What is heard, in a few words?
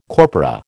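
"corpora", American English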